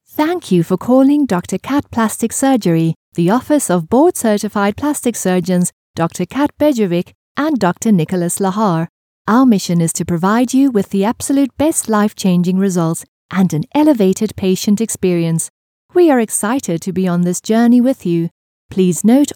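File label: english international interactive voice response; Synchronsprecher aus Südafrika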